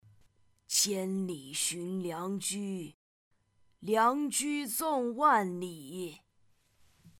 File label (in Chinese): 老人, 老太婆